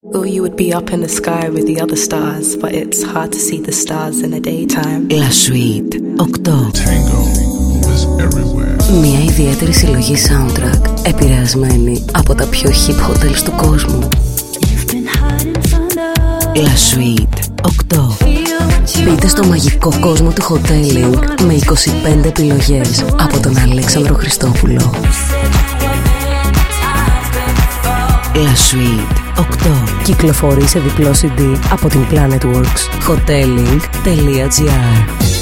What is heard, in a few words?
Radio Spot